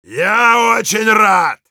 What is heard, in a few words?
Heavy positive vocalization, ru; «Я очень рад.»